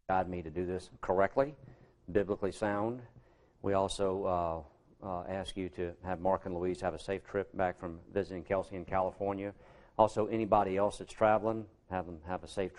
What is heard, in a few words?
Preaching